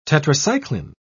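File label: "tetracycline", English, British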